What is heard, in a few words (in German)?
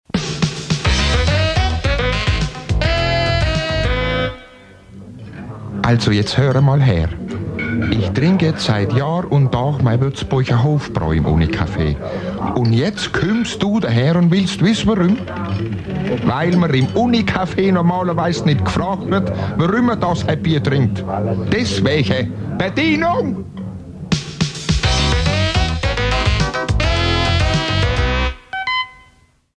Werbung; Unicafe